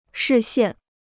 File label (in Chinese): "视线", Chinese